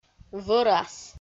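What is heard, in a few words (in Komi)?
"вӧрас", Komi-Zyrian, female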